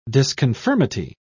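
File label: "disconformity", English, British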